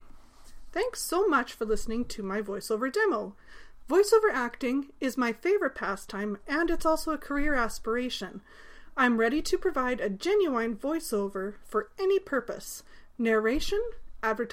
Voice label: voice over artist